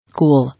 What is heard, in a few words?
"Cool", English